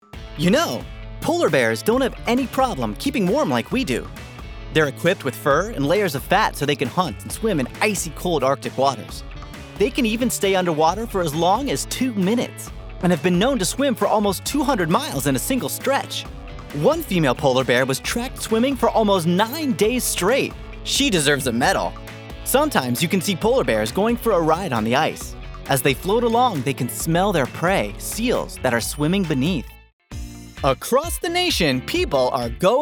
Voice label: Narration

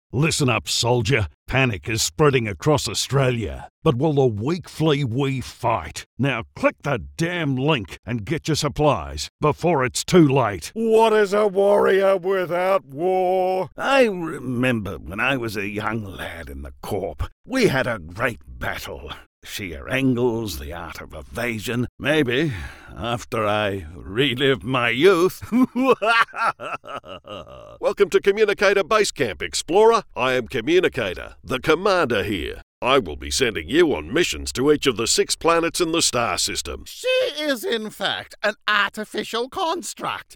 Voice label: Animation

